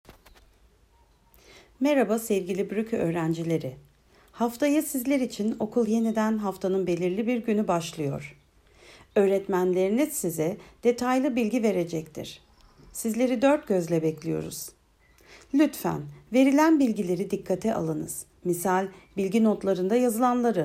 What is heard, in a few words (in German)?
Türkisch